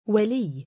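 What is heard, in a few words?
"waliiy", Arabic, male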